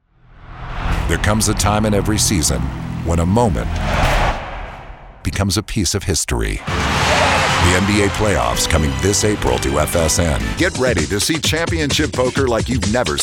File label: Male, promos